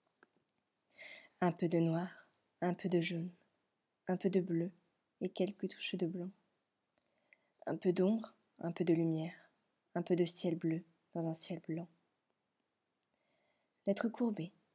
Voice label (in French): audioguide